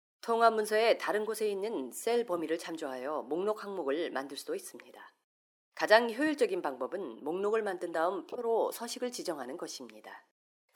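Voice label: Korean, Corporate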